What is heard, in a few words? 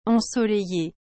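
"ensoleille", French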